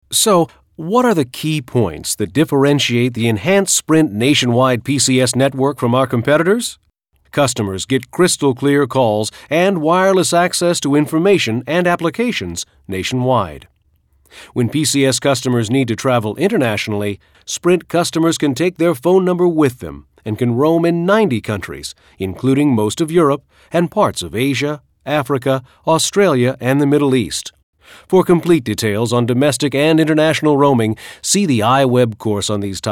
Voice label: Straight Narration